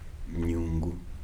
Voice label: "in the pot", Kibembe

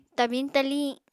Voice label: "tabiñ taliñ", Apatani

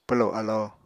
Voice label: "pwlo alo", Apatani

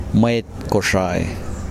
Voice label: "Kosraean person", Kosraean

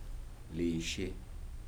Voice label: "sweet", Kibembe

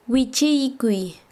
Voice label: Chanka, quechua; caerse

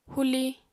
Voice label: "huli", Apatani

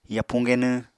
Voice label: "yapuñ genwñ", Apatani

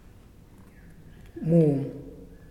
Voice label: Drink, Muyuw; Lae Unitech

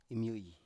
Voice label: "imyoi", Biak

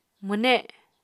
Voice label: "mwne", Apatani

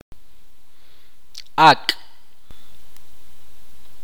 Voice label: "lengua", itza

